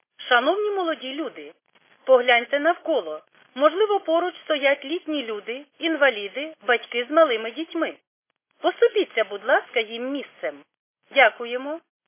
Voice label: khs st female, subway station